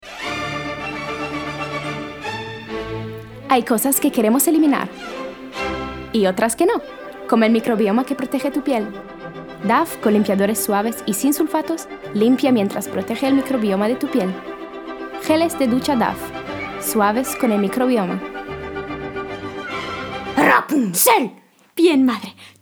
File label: Spanish Voice; Voice Reel